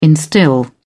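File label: "instil", British English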